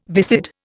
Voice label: "viscid", Indian